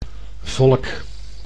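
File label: "volk", Dutch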